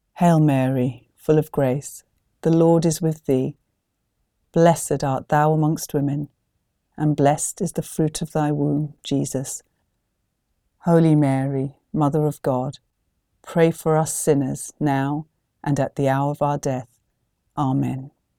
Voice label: Hail Mary English